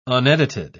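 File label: "unedited", British English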